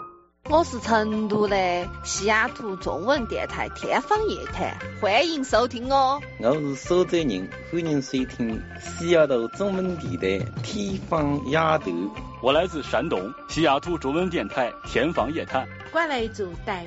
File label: chinese dialect